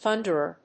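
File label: "Thunderer", English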